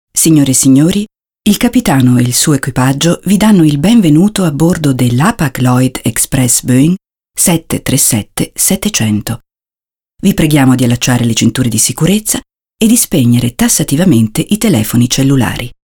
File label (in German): it durchsage, italienisch; sprecher